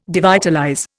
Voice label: "devitalize", Indian

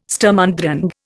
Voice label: "Sturm und Drang", Indian

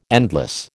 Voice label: "endless", American

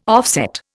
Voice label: "offset", Indian

